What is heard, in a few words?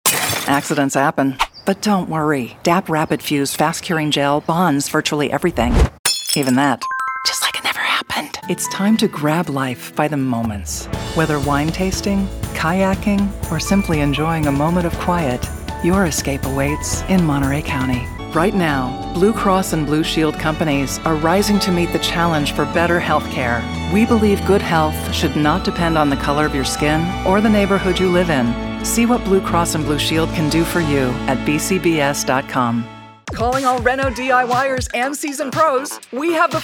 Commercial